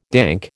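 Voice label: "dank", American English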